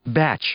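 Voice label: "batch", English; noun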